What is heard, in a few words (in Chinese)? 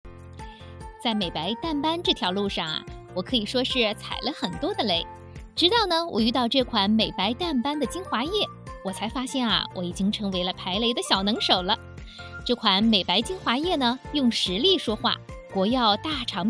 短视频促销广告